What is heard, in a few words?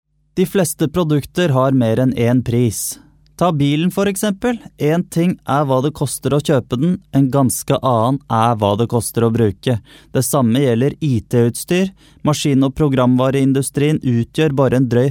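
norwegian male narration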